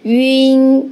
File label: "yun", nasal finals